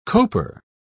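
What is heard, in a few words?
"coper", British English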